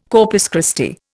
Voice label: "Corpus Christi", Indian